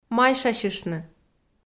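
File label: "майшасьыштны", Komi, female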